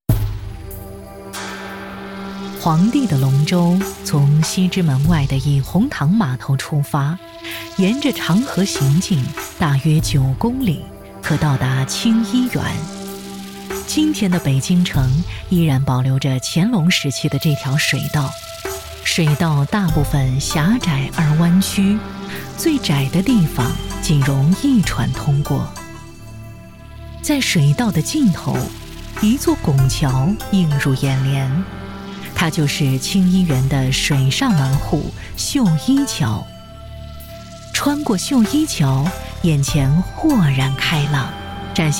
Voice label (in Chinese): 女 纪录片VO 叙事 解说 自然 成熟稳重